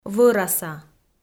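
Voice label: "вӧраса", Komi, female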